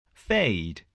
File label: "fade", voice